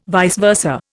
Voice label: "vice versa", Indian